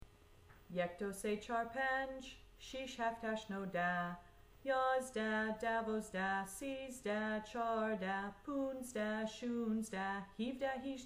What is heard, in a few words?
numbers quick